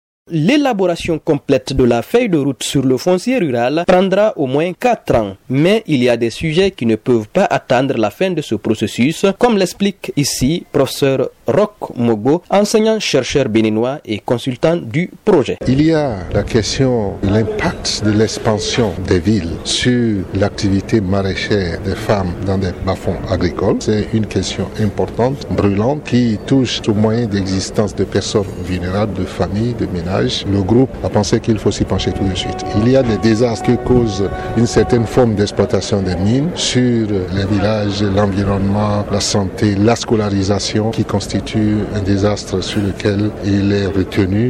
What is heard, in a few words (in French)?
Radio Forum national Cloture; Radio RTG